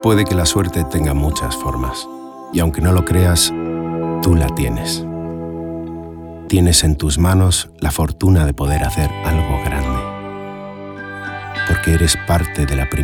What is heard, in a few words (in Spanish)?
Voz masculina media